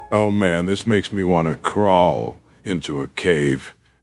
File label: upset; fertig classic games